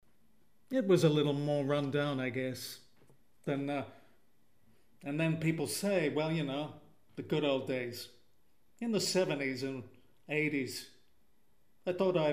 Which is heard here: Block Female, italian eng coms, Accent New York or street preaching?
Accent New York